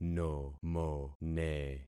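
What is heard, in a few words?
"nomone", concatenated syllables; Affixing experiment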